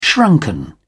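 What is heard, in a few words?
"shrunken", British English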